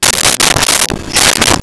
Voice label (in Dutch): heel mild; Home-made; Dialoog